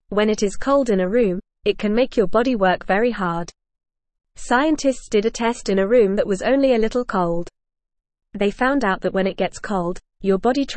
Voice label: English Beginner FAST Reading; Why Being Cold is Hard for Your Body; English Newsroom